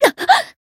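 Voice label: Voice damaged Japanese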